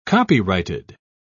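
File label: "copyrighted", British English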